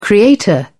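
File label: "creator", English, British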